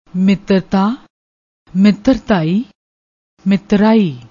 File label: "mitartta", Punjabi